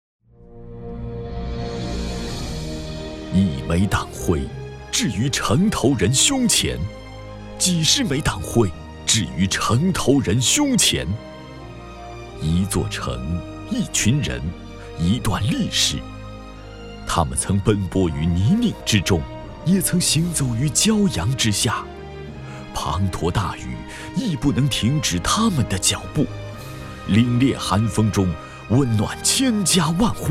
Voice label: 男 企业宣传 大气

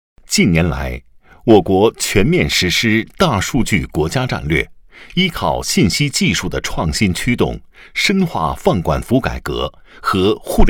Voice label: 男 专题 工作汇报片

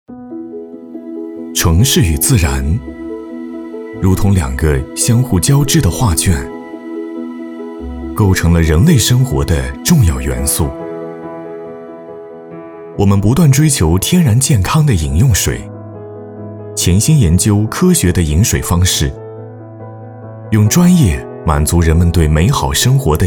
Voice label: 男,走心旁白,温暖大气,城市与自然

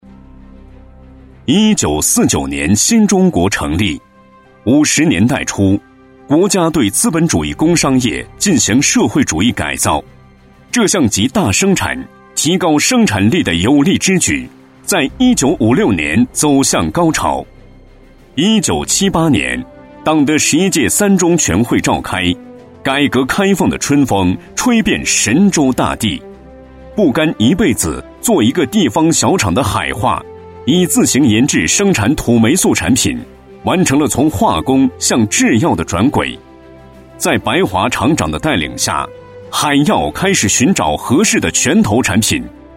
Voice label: 男,专题,自信大气

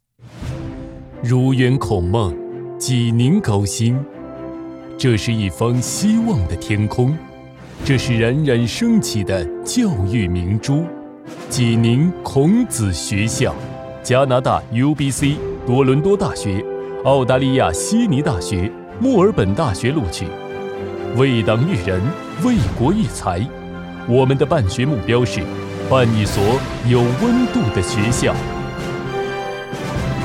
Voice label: 男 专题 学校专题; 孔子学校

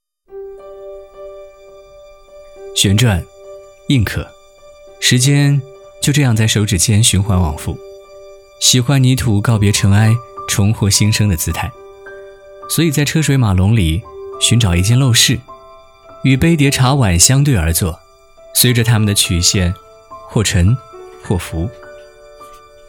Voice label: 男,旁白独白 旁白日记 陶艺师傅

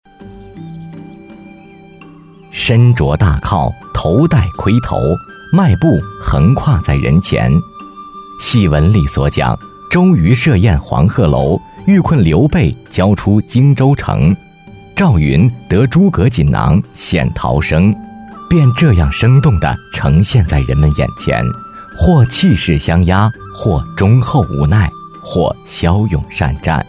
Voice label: 男 纪录片; 纱阁戏人

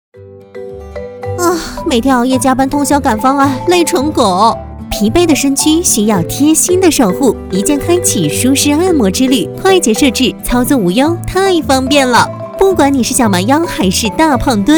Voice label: 女 飞碟说 活泼俏皮

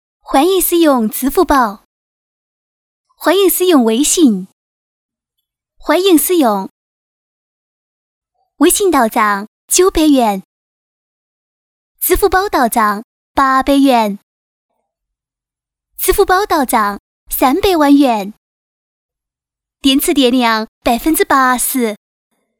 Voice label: 女 四川话

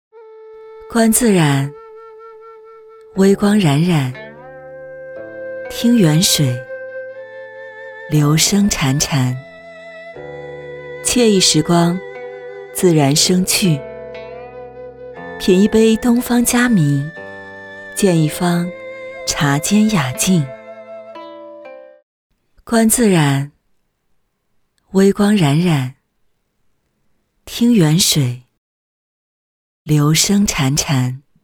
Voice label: 女 TVC 广告; 观自然

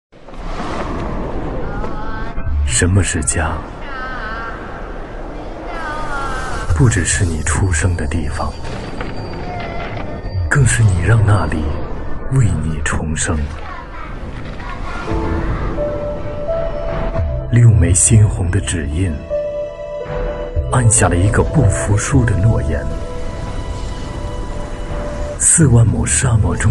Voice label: 男 公益广告; 央视公益广告; 六老汉与八步沙

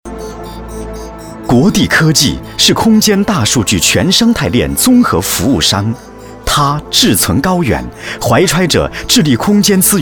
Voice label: 男, 科技感, 青年男科技企业科技感

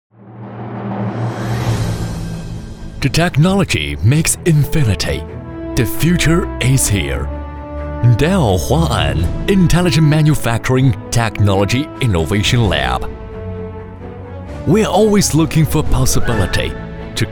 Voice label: 男 英文; 戴尔; Dell; Huai’an

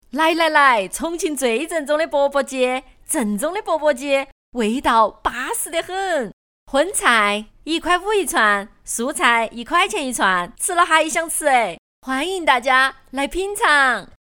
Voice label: 女 川话

